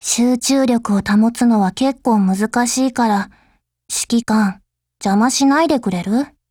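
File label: dialogue, Japanese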